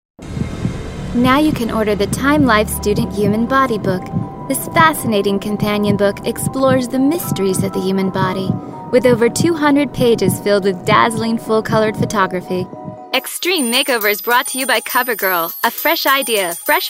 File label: young, female voices for radio commercials